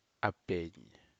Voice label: Aneityum black